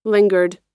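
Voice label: "lingered", American English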